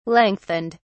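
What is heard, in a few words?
"lengthened", British English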